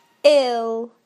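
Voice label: "ill", British English; PictureDictionary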